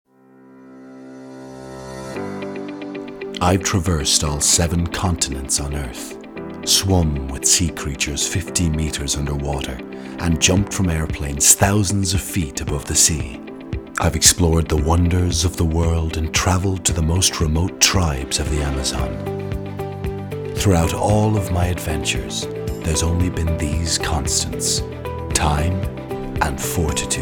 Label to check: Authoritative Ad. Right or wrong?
Right